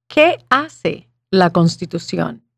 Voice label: Spanish